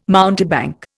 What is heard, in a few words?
"mountebank", Indian